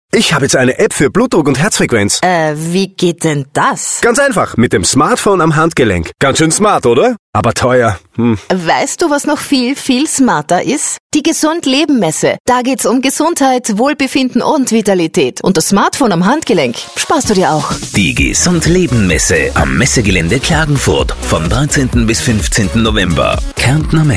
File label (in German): werbung, de-at